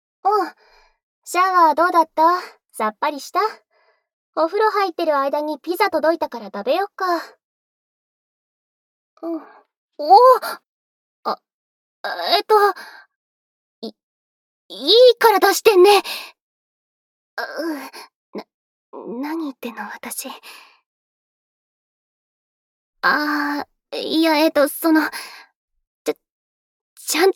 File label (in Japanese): ピザと耳かきとリスニング対策 SE無し, ASMR